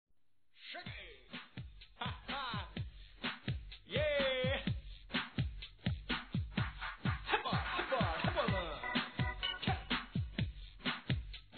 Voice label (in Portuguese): Aniversário Adolescente Masculino